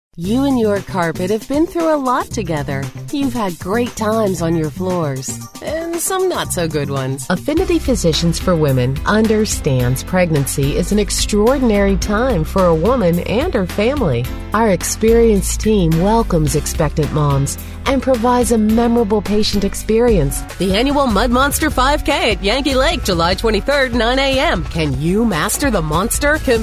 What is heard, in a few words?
Commercial